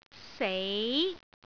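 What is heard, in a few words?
"sei2", Cantonese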